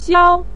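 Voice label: "xiao" neutral tone, Mandarin Chinese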